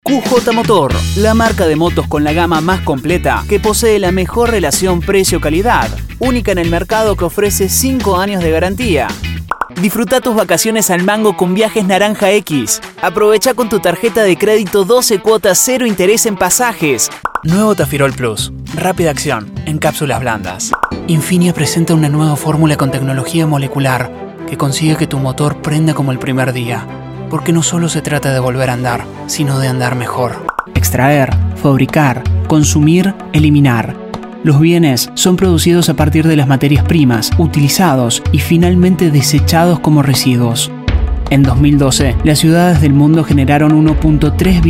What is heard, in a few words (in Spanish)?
rioplatense